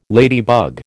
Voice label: "ladybug", American

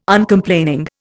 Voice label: "Uncomplaining", Indian

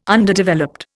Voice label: "Underdeveloped", Indian

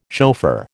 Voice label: "chauffeur", American

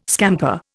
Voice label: "scamper", Indian

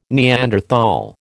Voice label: "Neanderthal", American

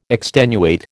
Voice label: "extenuate", American

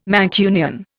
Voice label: "Mancunian", Indian

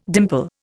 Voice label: "dimple", Indian

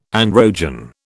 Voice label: "androgen", British

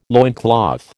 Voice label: "loincloth", American

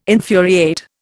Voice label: "infuriate", Indian

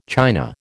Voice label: "china", American

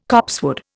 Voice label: "copsewood", Indian

